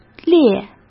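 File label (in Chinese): "裂", Mandarin Chinese; hsk; chinese-flashcards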